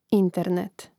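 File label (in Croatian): "internet", Hrvatski; mreznik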